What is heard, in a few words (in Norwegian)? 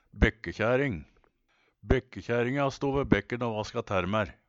"bekkekjæring", Numedalsmål